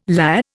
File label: "lah", Indian